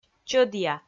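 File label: "чӧдъя" Komi, female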